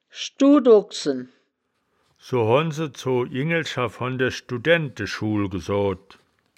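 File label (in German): "schdu-doksen", Wittlicher Wörterbuch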